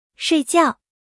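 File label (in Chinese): "睡觉", Chinese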